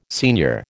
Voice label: "senior", American